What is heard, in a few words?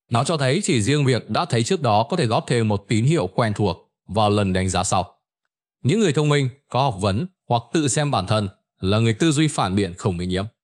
narration